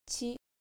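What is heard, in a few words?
"chi", Japanese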